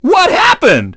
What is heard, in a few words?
dj reverse; DJ VOICE; Nintendo; July 2020 Gigaleak